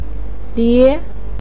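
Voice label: "lie", Mandarin Chinese second tone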